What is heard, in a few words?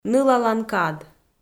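"нылалан кад", Komi, female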